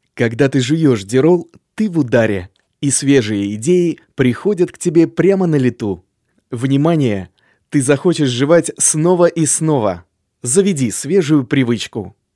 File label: locutor ruso russian voiceover